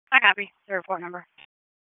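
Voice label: Raleigh Police Northeast District Dispatch, p25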